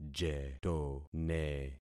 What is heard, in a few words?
"jetone", concat syllables; Affixing experiment